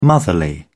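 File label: "motherly", British English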